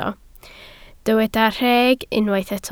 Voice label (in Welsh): "rheg", Welsh